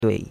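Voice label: "dui3", Chinese; Cours de Chinois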